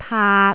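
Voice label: "taat3", Cantonese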